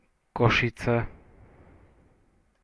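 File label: "Košice", Slovak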